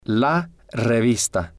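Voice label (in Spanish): "la revista", spanish, male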